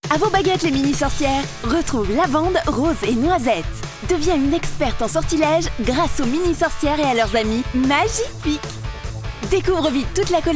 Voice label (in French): PUB dynamique, voix off